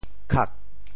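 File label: "khak4", Teochew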